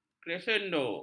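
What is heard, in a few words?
"kresendo", Malay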